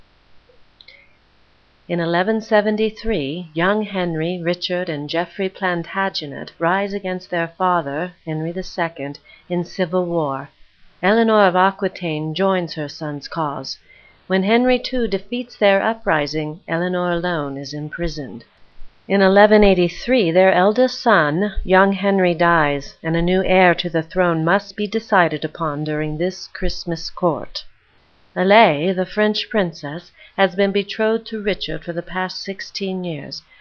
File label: voiceover